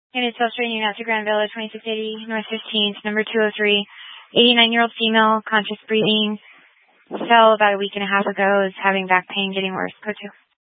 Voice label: Fire Department Dispatch; Grand Junction